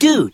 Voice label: dude cartoon, voice; expletives